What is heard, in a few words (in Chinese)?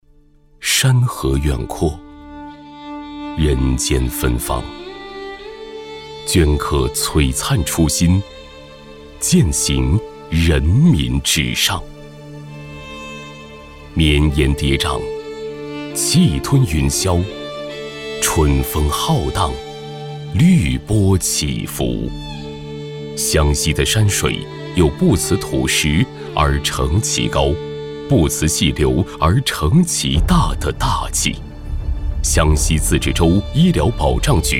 男 整体形象宣传; 走心企业形象片样音; 湘西自治州医疗保障局